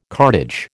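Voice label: "cartage", American English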